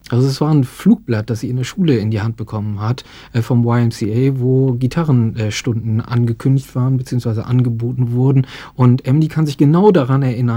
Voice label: german translation

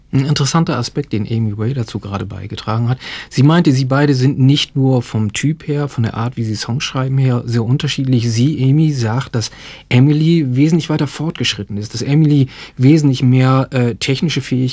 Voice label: german translation

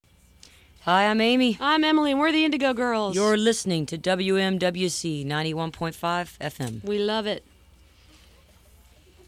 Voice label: radio station promo spot

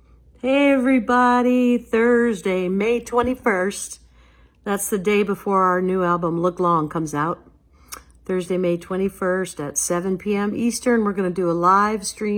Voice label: promo

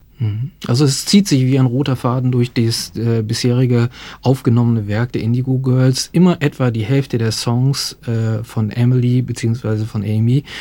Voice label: german translation

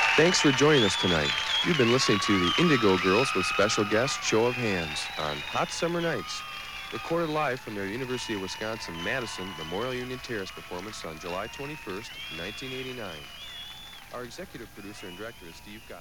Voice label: station break

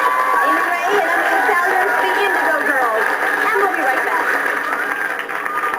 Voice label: announcer; weekend today television show

